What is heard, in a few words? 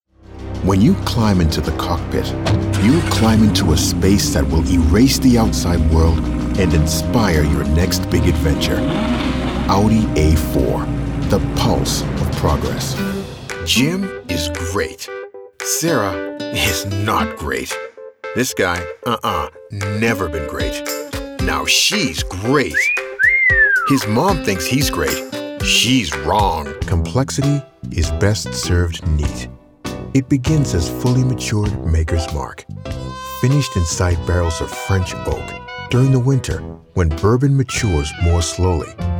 Commercial